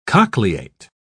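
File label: "cochleate", British English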